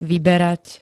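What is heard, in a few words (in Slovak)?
"vyberať", Slovak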